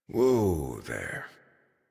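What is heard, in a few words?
horse stop walk adult, English; Warframe; Duviri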